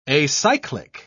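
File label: "acyclic", English, British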